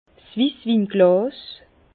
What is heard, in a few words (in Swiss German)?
"wisswinglas", Bas Rhin; vin; verre de vin blanc